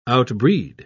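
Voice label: "outbreed", British English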